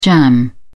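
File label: "jamb", British English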